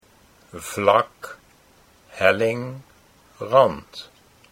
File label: "vlak helling", Dutch